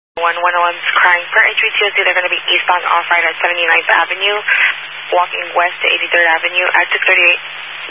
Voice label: Highway Patrol, Phoenix Metro West Area, scanner

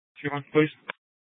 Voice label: Sheriffs Office, scanner

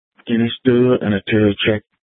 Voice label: Court Security Dispatch, scanner

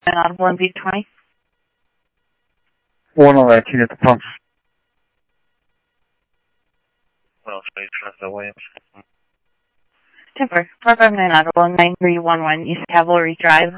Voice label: Police, scanner audio trunk; H-Scottsdale

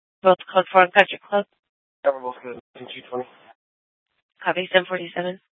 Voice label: Mesa PD Fiesta Dispatch

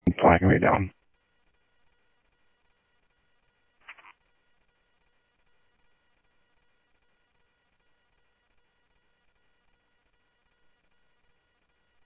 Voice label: Sheriff scanner audio

